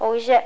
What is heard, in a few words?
"ogize", Basque